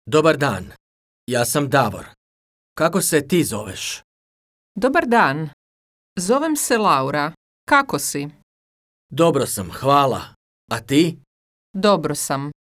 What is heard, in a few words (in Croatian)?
dijalog; class zadatak